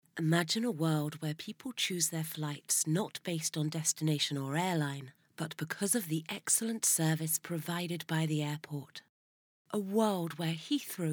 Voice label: Dry voice

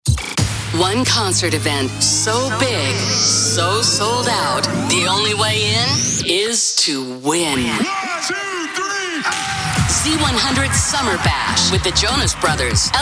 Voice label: Radio Imaging; English (South African)

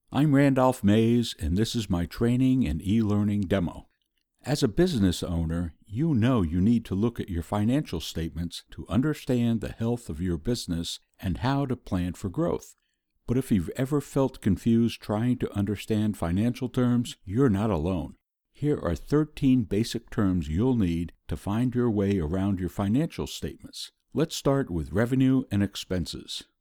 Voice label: Training eLearning

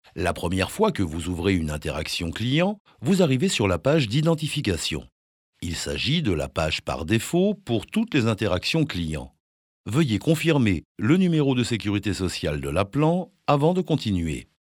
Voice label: e-learning

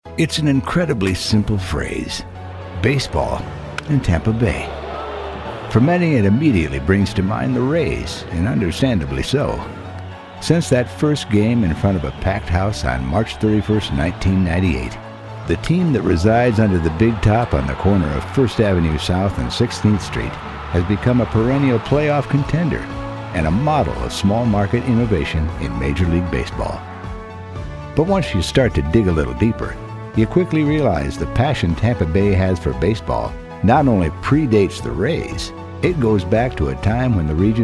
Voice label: DOCUMENTARY

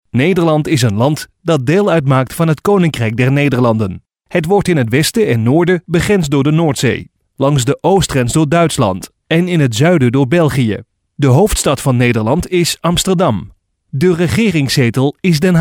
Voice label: Documentary

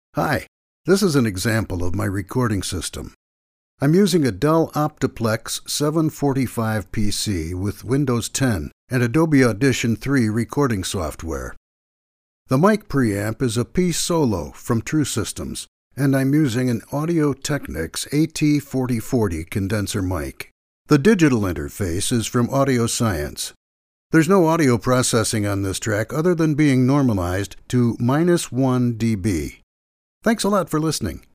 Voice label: Studio Sample

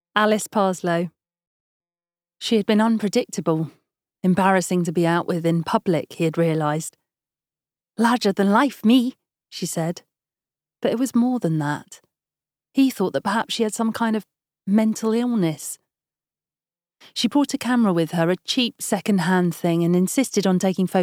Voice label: Drama Narration

